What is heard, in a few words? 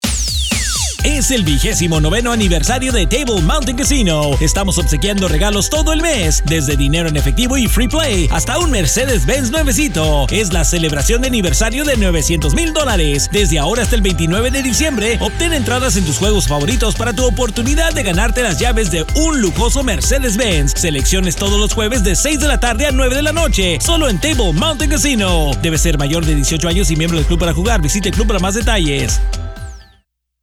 Spanish Radio; 900K Giveaway